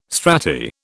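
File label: "strati", British English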